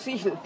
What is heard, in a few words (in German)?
Dialekte; GHV Dialekte 2018